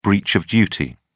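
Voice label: "breach of duty", British English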